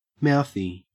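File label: "mouthy", Australian English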